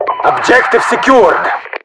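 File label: secured objective, voiceovers RU; mpvoice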